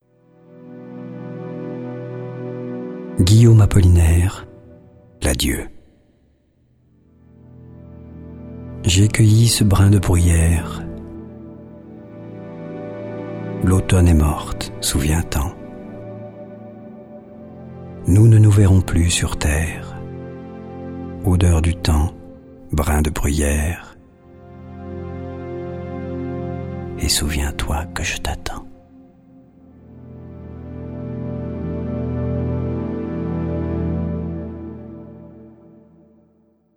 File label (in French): voix poesie; apollinaire